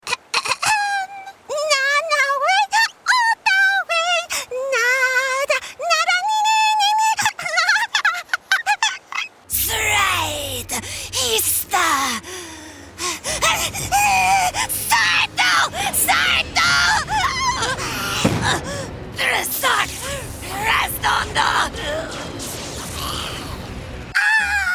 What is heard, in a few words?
Creature